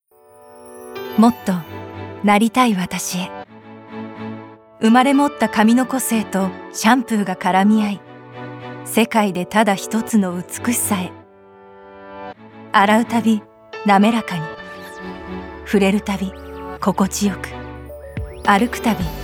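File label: Cool, Japanese; 番組ナレーション; 「そんじょそこら商店街 再生の物語」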